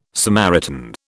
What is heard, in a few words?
"Samaritan", British